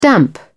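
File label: "damp", British English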